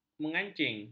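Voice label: "mengancing", Malay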